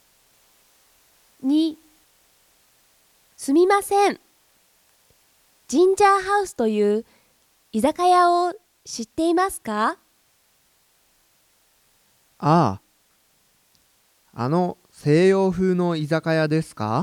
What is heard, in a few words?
Conversation